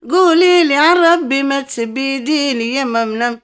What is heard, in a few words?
OLD WOMAN, Arabian Traditions